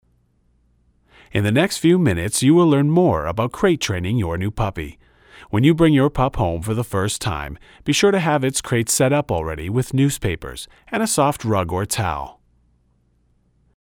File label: mic b, voice over